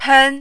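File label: "pen", Mandarin, first tone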